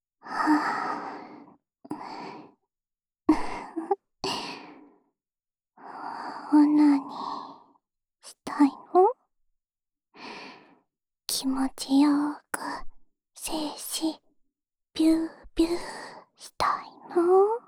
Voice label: オナサポ用シコシコボイスループ（右耳）, ASMR

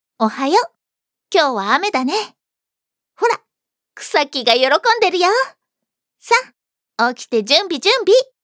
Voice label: ハイテンション, ハイテンションな女の子

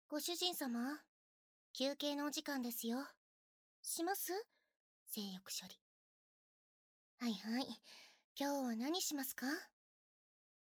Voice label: バイノーラル淫語連呼音声; メイドさんのバイノーラル淫語連呼音声

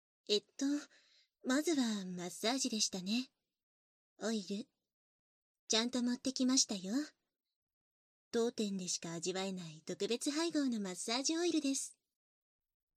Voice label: マッサージ（バイノーラルマイク収録 効果音あり小さめ）; バイノーラル編集

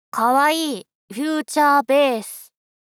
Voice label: ショタ×中音域; かわいい！ふゅーちゃーべーす

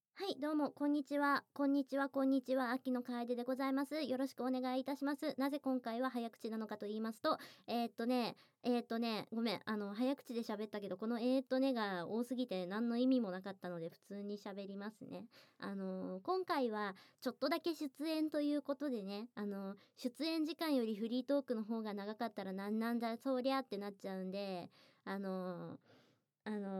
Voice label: フリートーク

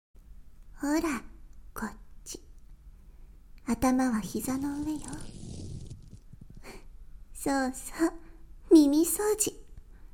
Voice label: あまあま耳かき, ASMR

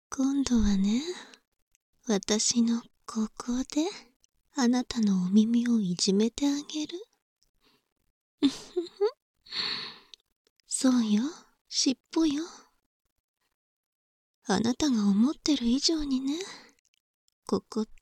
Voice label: 耳尻尾攻め, バイノーラルマイク収録 効果音なし

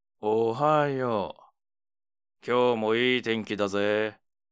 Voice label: mezamashi, ASMR

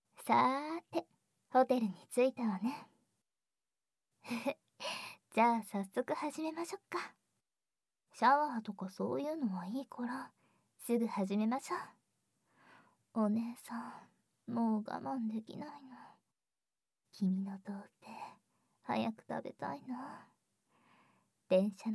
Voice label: 効果音なし 耳舐め ささやき 乳首責め キス 騎乗位; 優しく甘く…。舞編

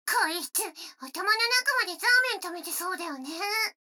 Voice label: 女の子台詞, ASMR

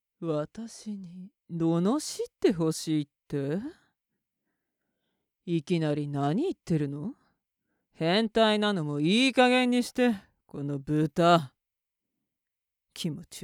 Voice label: お姉さんからの罵り

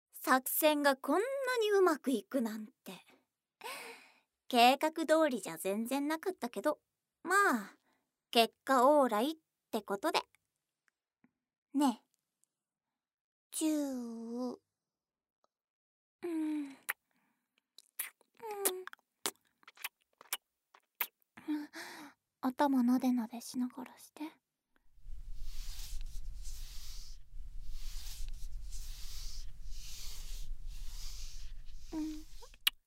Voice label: ピロートーク

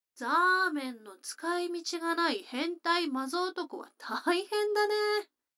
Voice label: 女の子 台詞, ASMR

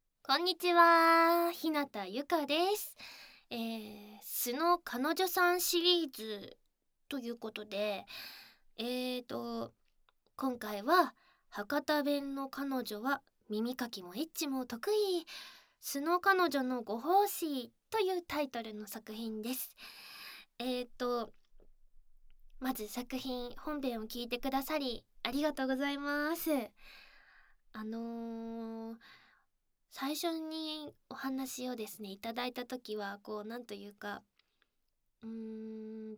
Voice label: 博多弁でフリートーク, ASMR

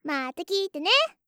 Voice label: まーたきーてね, 店員

